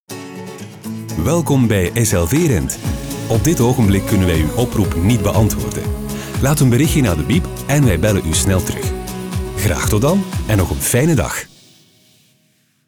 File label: ivr; slvrent; studio sonart